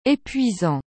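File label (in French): "epuisant", French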